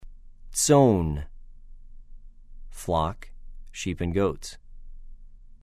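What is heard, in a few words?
"צֹאן", Hebrew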